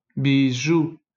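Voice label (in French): "bijoux", French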